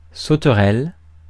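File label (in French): "sauterelle", French; phonemes; Mots francais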